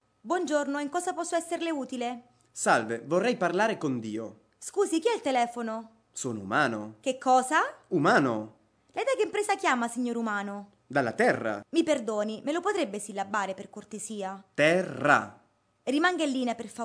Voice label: Convers Italian